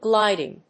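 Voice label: "gliding", English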